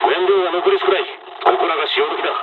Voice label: dialog, dialogs japanese; War Thunder

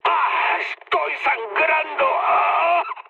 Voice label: damaged pilot, mood med, speech; War Thunder

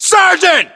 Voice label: rank sergeant, us

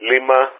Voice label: "Lima", player speech; Ka-50; Alphabet

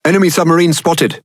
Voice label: Speech Detection Enemy Sub, EN GB; World Of Warships

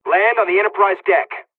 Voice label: landing, dialogs korean; midway us; War Thunder

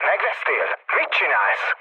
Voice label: player killed ally, mood low, speech hun; War Thunder

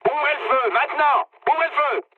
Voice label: attack position mood med, speech fr; War Thunder

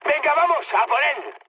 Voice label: attack position, mood high, speech; War Thunder

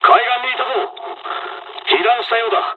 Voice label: dialogs japanese; War Thunder

